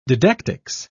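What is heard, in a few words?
"didactics", British English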